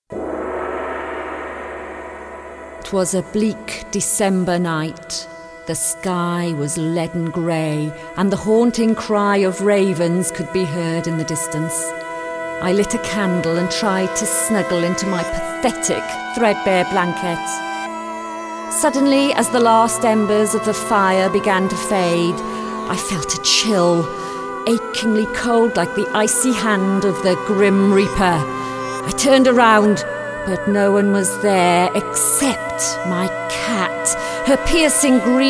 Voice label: English UK